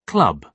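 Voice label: "club", British English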